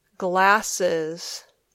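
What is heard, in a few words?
"glasses", English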